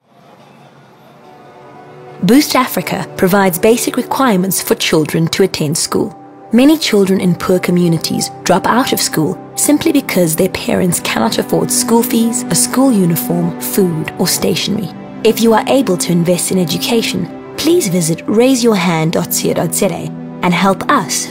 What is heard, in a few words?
Voice Over Feature; Boost Africa; SABC